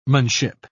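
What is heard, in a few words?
"-manship", British English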